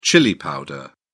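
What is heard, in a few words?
"chili powder", British English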